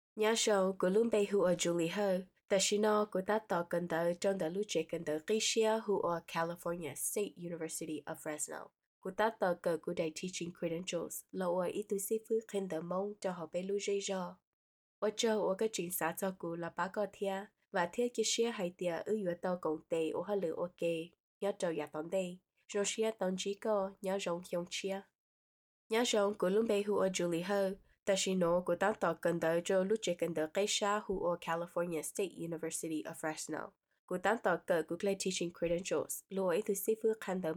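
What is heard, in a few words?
Hmong, General; GoLocalise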